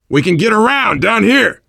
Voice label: world farmhouse, voice, biker

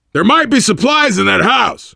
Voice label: world farmhouse, voice, biker

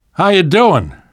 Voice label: revive friend, namvet, survivor voice

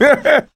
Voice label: positive noise, coach; survivor

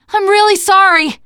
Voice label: sorry, teen girl; player; survivor; voice

